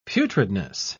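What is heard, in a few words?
"putridness", British English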